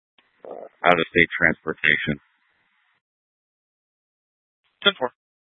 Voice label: scanner, Tempe PD; Rgnl Wireless Co-op (RWC)

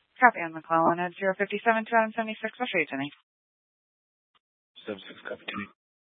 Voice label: Dispatch, scanner

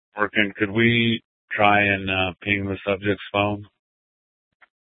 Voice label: Sheriff, scanner P25

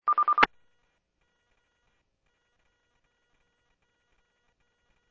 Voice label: Medevac, scanner; PHIAIREVACHelRad

